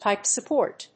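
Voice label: "Pipe support", English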